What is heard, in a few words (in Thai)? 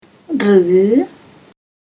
"Rŭee", Thai